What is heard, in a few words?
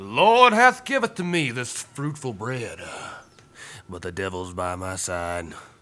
Preacher Win; blackjack